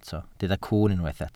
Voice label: "cwwn", Welsh